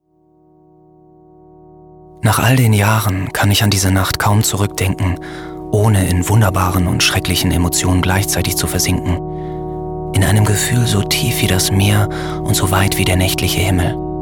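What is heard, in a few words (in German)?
Schauspiel Sanft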